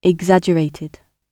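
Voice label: "exaggerated", British English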